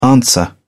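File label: "answer", British English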